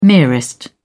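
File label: "merest", British English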